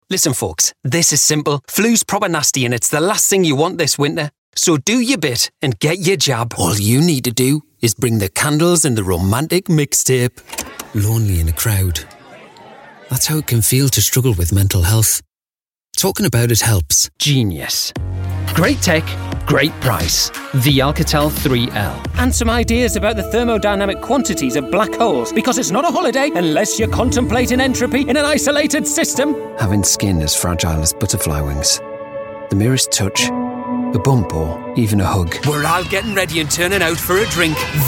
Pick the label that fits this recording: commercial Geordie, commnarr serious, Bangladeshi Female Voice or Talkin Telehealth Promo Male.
commercial Geordie